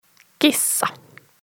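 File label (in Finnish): "kissa", Finnish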